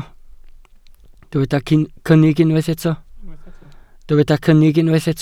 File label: "cynnig", welsh